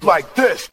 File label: "like this", male